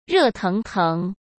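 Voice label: "热腾腾", Mandarin Chinese; chinese-flashcards; hsk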